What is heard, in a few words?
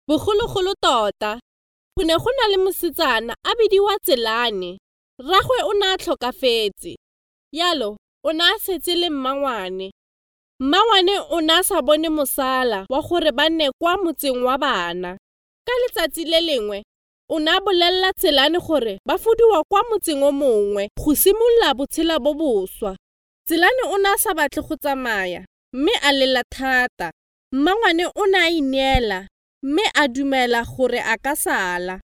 female tswana voice over character